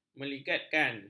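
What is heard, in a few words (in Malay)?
"meligatkan", Malay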